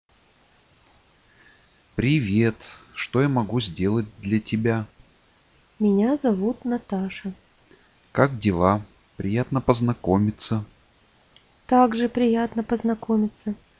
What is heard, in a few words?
Russian simple story